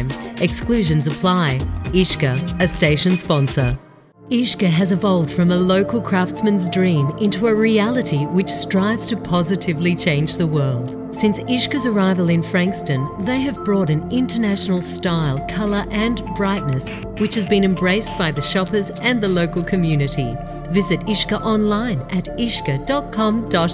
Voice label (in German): australia female imagefilm sprecher